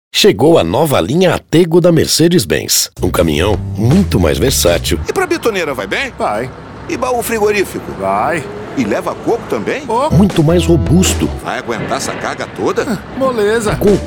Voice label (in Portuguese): Spot; Mercedes Atego